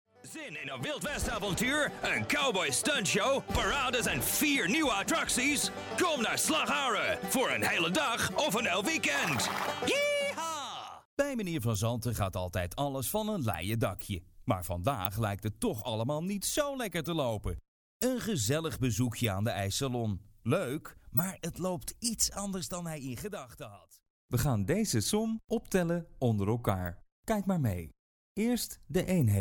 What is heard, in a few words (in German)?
Niederländisch, Männlich